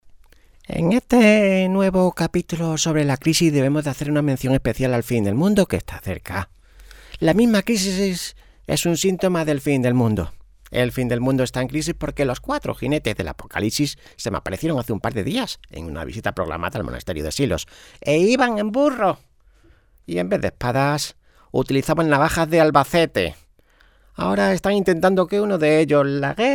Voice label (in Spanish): el fin comentario programa radio; locutortv; La crisis 3.2. Nuevas medidas que nos anuncian el fin del mundo. Guiones para radio y televisión.